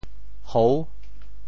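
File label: "hou6", Teochew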